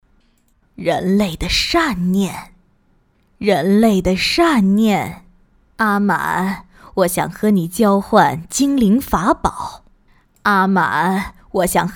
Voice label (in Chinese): 女 中日英老太太